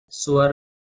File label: "swar", Proto Germanic